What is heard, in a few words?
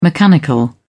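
"mechanical", British English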